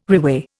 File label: "reweigh", Indian